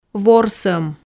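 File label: "ворсӧм", Komi, female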